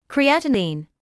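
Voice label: "creatinine" English, British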